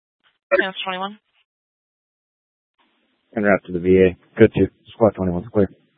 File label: Fire Department Dispatch; Grand Junction